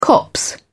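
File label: "copse", British English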